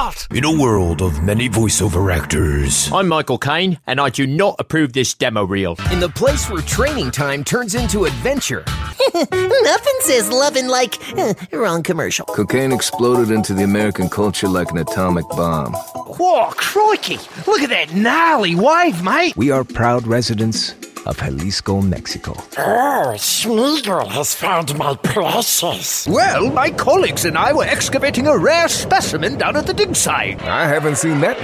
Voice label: animation men, voices men